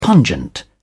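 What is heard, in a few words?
"pungent", British English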